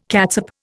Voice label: "catsup", Indian